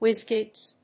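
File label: "Whizkids", English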